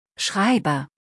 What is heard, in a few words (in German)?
"Schreiber", deutsch